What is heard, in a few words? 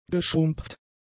"geschrumpft", German; adjectives